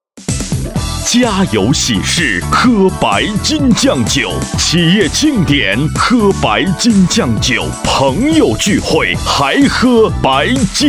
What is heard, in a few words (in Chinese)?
男 白金酱酒; 央视7套